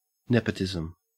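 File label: "nepotism", English, Australian accent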